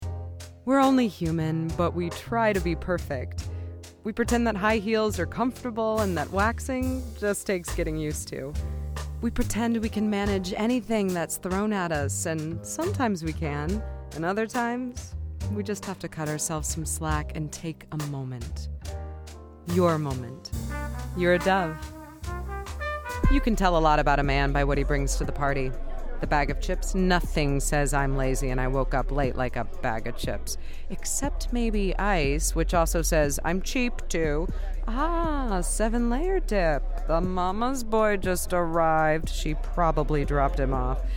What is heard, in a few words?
vo reel